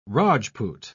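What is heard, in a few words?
"rajpoot", British English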